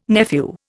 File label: "nephew", Indian